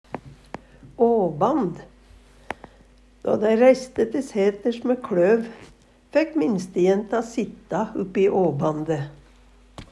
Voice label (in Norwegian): "åband", Numedalsmål; 2. rom i kløvsal mellom kløvkassene